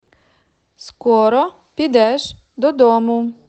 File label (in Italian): Ucraino